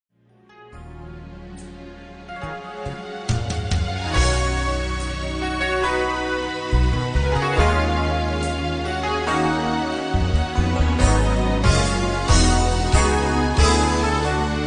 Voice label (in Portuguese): religiosa fem, Aniversário Religioso – Voz Feminina